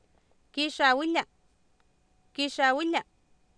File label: kiixaawilia, Oapan minimal pairs female; Oapan minimal pairs 2000